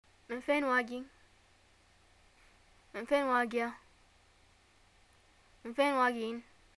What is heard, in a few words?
where r u from, arabic, ye